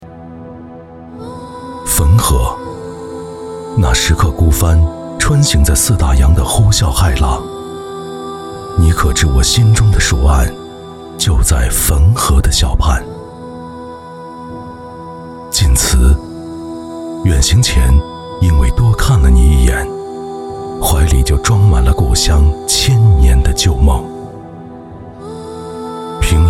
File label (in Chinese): 深沉 走心